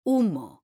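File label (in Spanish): "HUMO" Spanish, female; mekanta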